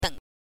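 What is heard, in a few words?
"deng", Mandarin Chinese, neutral tone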